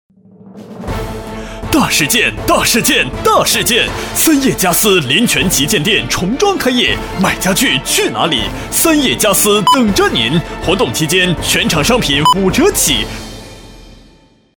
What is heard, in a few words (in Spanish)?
Locutor varón chino